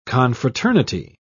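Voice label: "confraternity", British English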